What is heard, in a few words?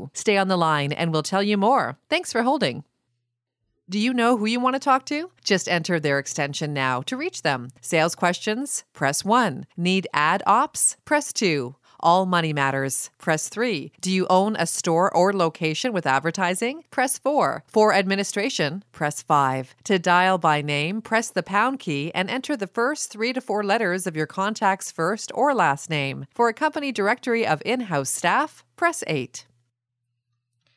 Phone Prompt Sample